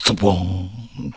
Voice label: Mumble; Salvatore; zelda sounds